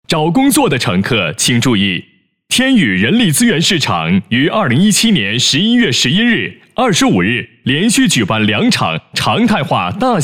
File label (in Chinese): 招聘 干音